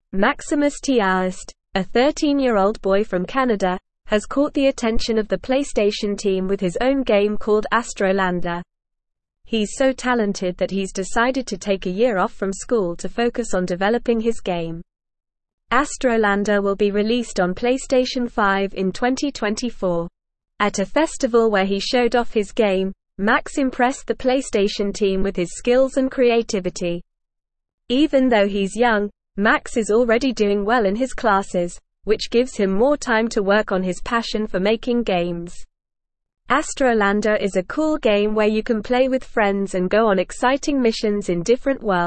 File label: English Upper Intermediate NORMAL Reading; 13 Year Old Canadian Boy Develops PlayStation 5 G; English Newsroom